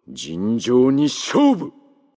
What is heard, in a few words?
jinjyo ni shoubu, male; for honor orochi